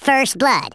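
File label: "first blood", Redneck, male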